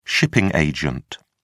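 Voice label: "shipping agent", British English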